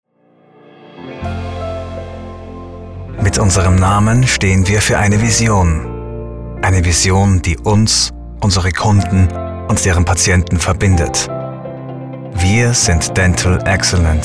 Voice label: german medical narration